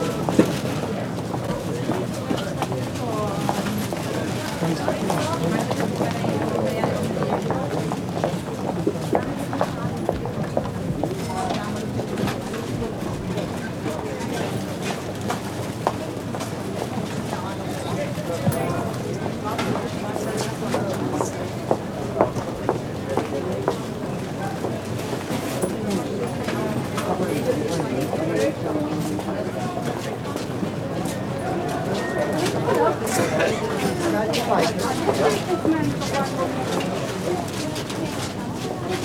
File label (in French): Voix Rue Passants; french; Crowds